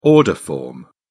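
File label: "order form", English, British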